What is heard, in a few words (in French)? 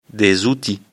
"outils", lecture fr